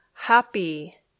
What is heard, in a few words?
"happy", English